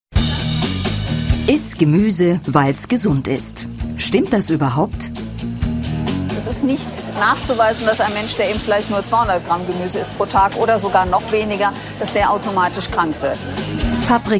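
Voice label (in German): Kommentar Dynamisch; Fremdtake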